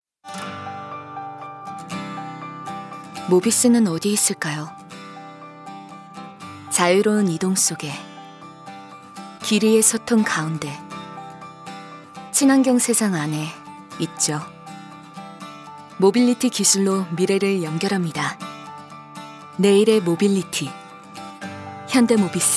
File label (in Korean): 멘트 낮고 차분한 20대 따뜻한; 현대 모비스